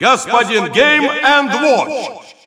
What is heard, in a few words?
Russian Announcer; Mr. Game & Watch; SSBU